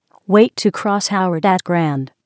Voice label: Information message